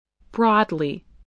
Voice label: "broadly", spelling